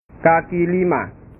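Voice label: "kaki lima", Indonesian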